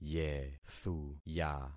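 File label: "yethuya", concatenated syllables; Affixing experiment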